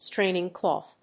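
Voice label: "straining cloth", English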